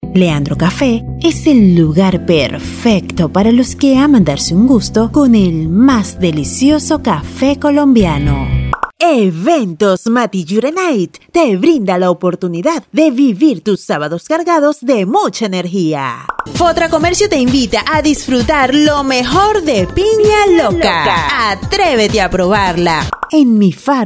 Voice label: neutro, espanol neutro

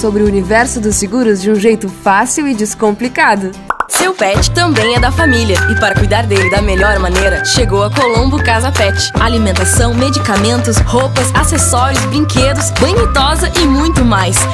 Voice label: brasil, portugues

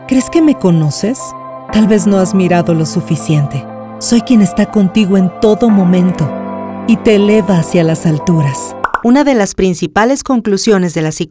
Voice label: neutro, espanol neutro

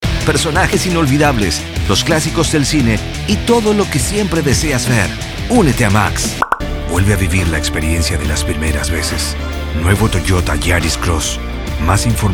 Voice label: neutro, espanol neutro

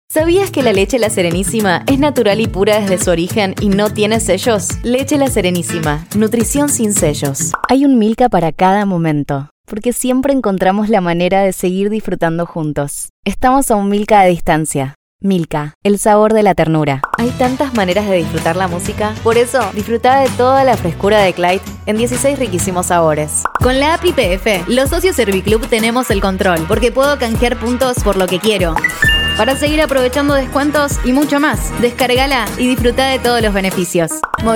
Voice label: rioplatense

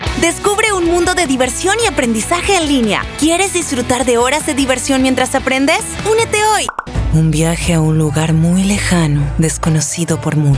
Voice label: neutro, español neutro

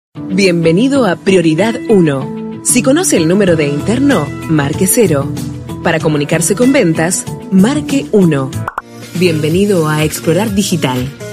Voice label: telefonía

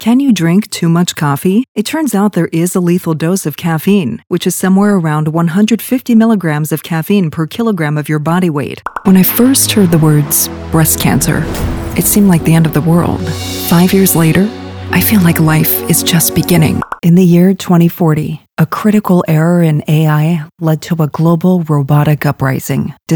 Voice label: ingles

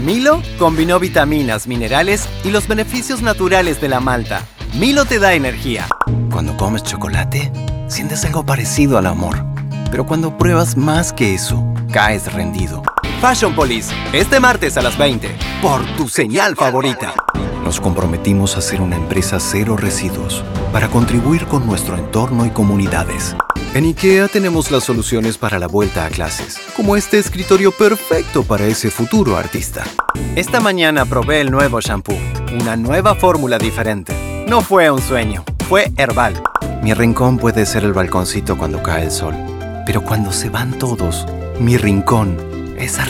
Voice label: neutro, español neutro

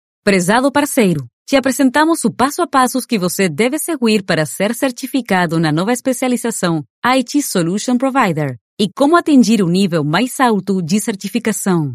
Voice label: portugues

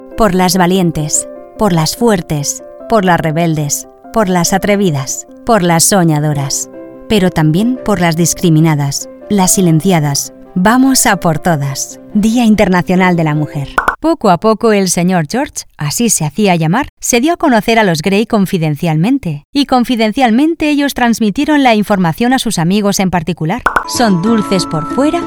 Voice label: espanol-espana

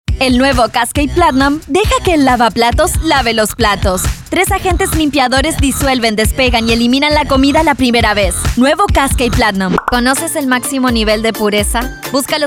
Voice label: neutro, español neutro

